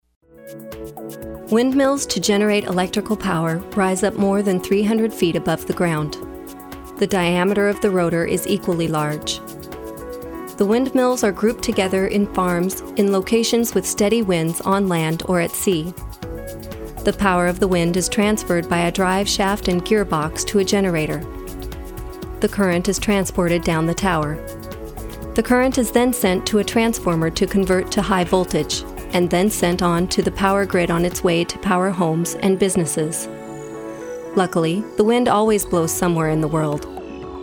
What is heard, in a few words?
voice over narrative